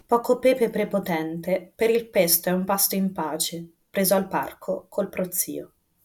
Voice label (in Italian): plosive maono pd200x